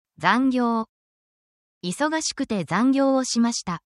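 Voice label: Japanese word, japanese phrases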